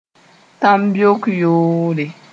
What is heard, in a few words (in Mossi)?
"tãmbeok yoore", moore